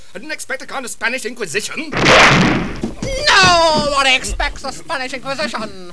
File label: spanish